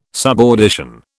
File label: "subaudition", British